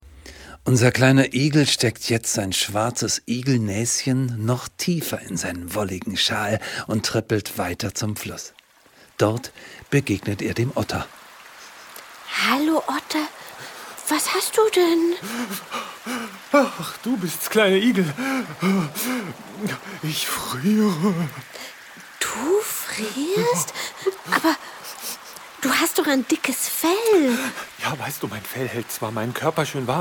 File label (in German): Hoerspielszene